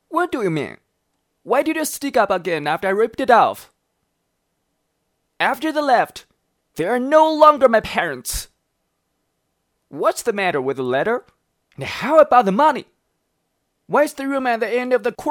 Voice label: 英文 角色 爷孙对话

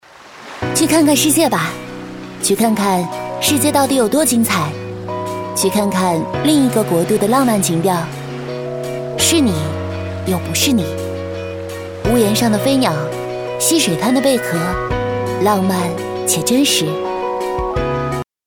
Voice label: 旁白 自然温暖; 看世界

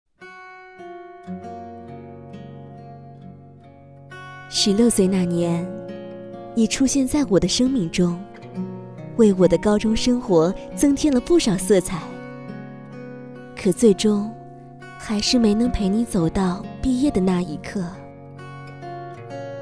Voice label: 旁白 内心旁白

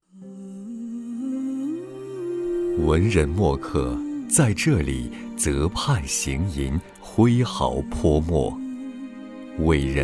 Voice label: 纪录片 文人墨客

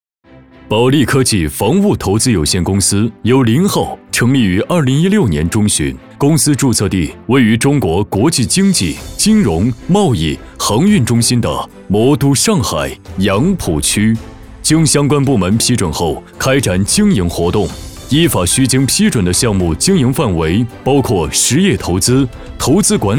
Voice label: 专题 沉稳大气 宣传片; 保利科技

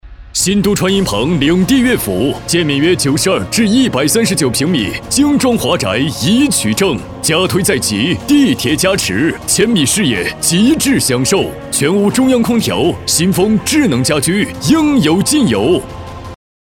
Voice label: 广告 地产激情; 领地悦府

